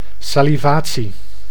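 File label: "salivatie", Dutch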